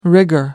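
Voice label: "rigor", British English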